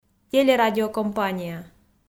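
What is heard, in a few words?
"телерадиокомпания", Komi, female; audio dict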